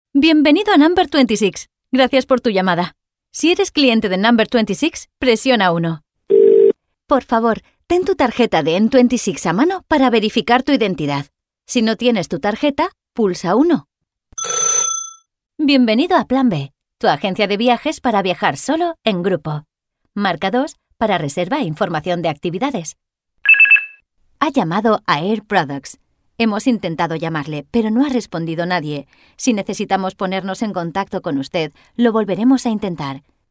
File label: ivr